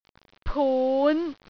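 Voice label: "pun5", Cantonese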